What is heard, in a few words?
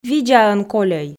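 видзаӧн кольӧй, Komi, female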